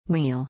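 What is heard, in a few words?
"wheel", English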